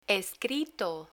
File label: "escrito", Spanish